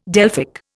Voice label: "Delphic", Indian

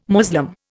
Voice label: "Moslem", Indian

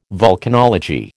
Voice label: "volcanology", American

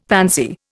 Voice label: "pansy", Indian; Dictionary

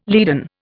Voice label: "leaden", Indian

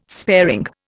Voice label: "sparing", Indian

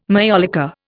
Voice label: "majolica", Indian

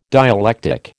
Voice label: "dialectic", American

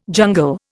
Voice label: "jungle", Indian

